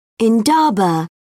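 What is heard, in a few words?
"indaba", British English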